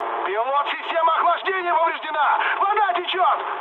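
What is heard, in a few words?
Russian damaged water mood med, speech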